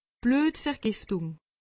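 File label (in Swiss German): "bluetvergiftung", Alsatian; medecine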